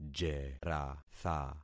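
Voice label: "jeratha", concatenated syllables; Affixing experiment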